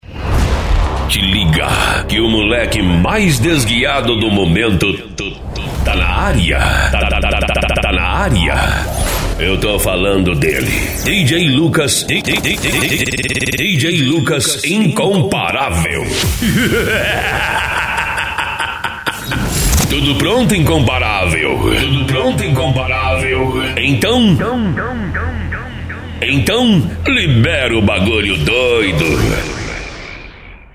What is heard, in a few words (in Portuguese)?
locutor